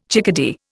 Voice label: "chickadee", Indian